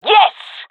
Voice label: cheer, fem; player vo; Counter Strike Model Pack; CS:GO